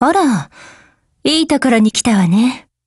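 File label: HELLO Japanese, Voice; GF Alarm; DSR50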